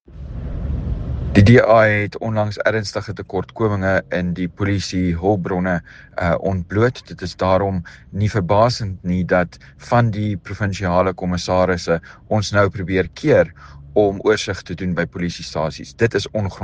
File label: Afrikaans